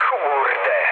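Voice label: trick mood low, speech Polish; War Thunder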